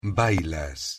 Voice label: "bailas", pronunciation es

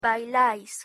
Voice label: "bailais", pronunciation es

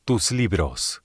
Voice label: tus libros, espanol

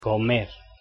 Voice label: "comer", pronunciation es

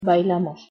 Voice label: "bailamos", pronunciation es

